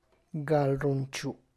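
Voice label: Tlacochahuaya Zapotec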